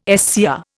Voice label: "SCR", Indian